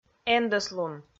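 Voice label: "эндӧслун", Komi, female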